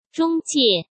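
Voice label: "中介", Mandarin Chinese; hsk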